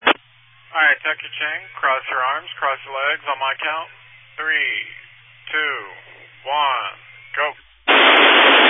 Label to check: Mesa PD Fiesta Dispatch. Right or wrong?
Wrong